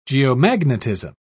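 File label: "geomagnetism", British English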